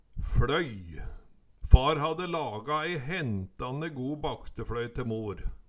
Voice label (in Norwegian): fLøy, Numedalsmål; halv cm tykk, 3 - 4 cm breid og 70 - 80 cm lang, bruka når ein baka lefse eller flatbrød